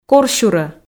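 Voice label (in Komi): "корсюрӧ", Komi, female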